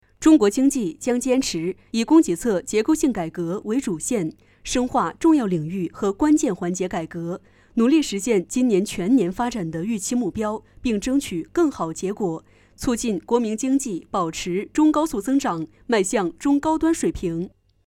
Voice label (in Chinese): 新闻类